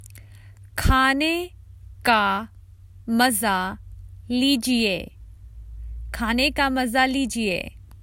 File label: Common Hindi Phrases for travel Enjoy your food